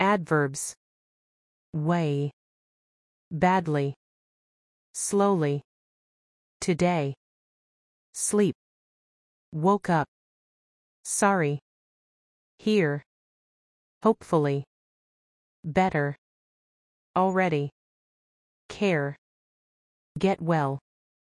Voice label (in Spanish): notas de texto adv manera ingles; repaso adverbios de manera